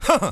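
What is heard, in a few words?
"huh huh", vocal sample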